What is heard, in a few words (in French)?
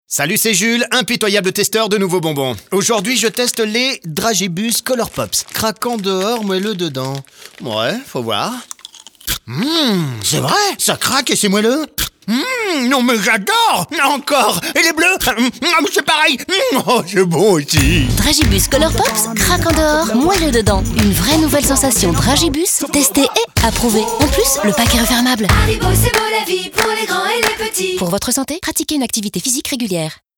Pub Radio; Haribo Dragibus